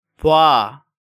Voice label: "Bwa", Korean

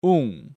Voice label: "ung", Korean

